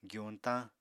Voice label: "gyuñtañ", Apatani